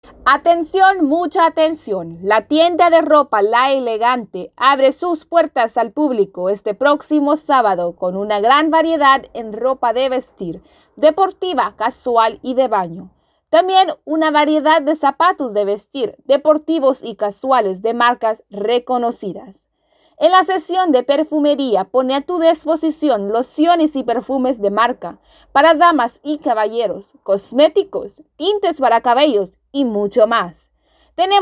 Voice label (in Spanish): Anunciando un boutique